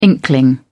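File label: "inkling", British English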